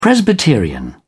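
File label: "presbyterian", British English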